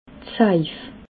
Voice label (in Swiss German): "saif", alsace